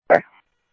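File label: police radio dispatch, ScottsdalePolice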